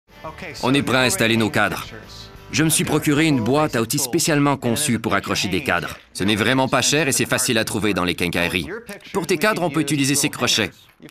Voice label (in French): surimpression vocale